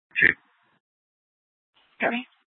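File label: Police Department Dispatch; Mesa PD; 4 Superstition Dispatch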